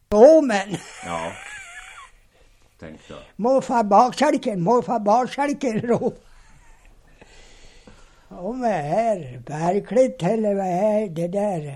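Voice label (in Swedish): Möklinta Västmanland, dialekter; Svenska sagor; Folkminnen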